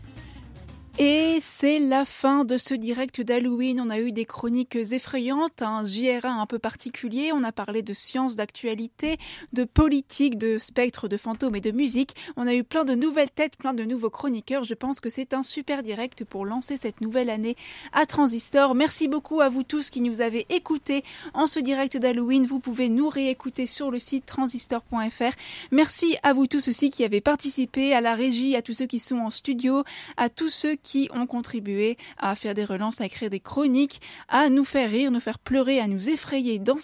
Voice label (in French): desannonce